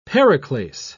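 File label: "periclase", British English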